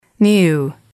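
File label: "new", English, female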